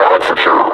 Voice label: announce kill player, combine suppressor; Half Life Alyx